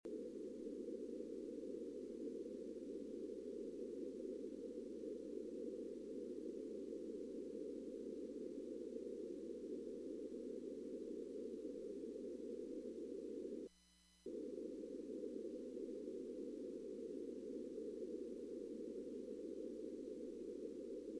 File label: wpx ssb; contests